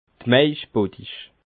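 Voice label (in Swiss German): "maischbottich", Alsatian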